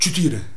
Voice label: "jugo", shouts; Best Service; Hyper Dance